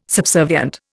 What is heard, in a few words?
"subservient", Indian